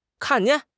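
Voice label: "kan4 jian4", Mandarin Chinese; HSK1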